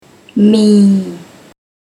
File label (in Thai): "Mee", Thai